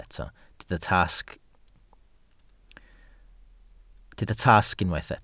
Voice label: "tasg", Welsh

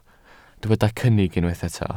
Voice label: "cynnig", welsh

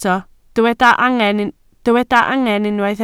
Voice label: "angen", Welsh

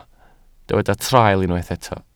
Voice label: "traul", Welsh

